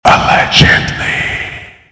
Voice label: allegedly whisper loudish